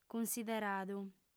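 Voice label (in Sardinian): "cunsideradu", female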